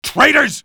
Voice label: alert soldier, male